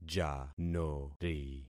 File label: "janori", concat syllables; Affixing experiment